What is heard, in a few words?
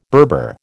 "Berber", American